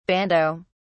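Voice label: "bandeaux", British English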